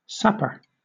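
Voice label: "sapper", British English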